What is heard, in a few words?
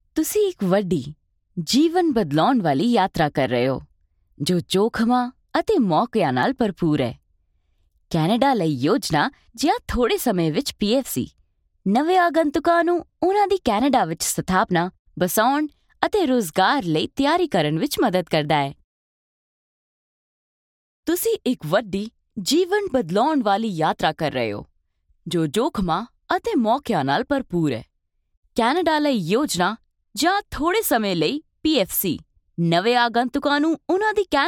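Commercial, Punjabi; voice demos; GoLocalise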